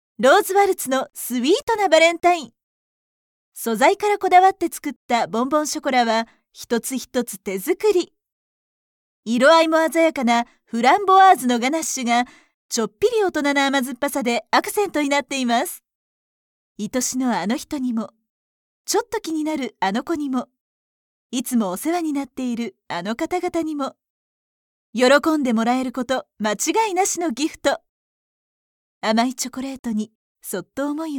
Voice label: narration